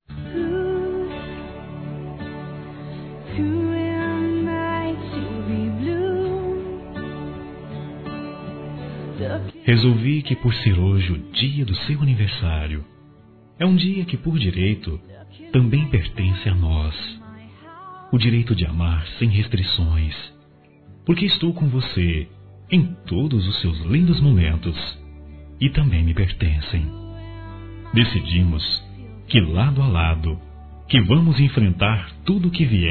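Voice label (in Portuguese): Namorada ou Esposa Masculino; Aniversários; ANIVERSARIO romantico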